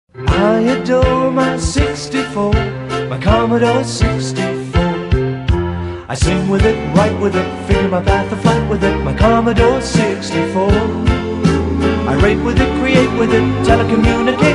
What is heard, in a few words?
C64 English